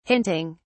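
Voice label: "hinting", British English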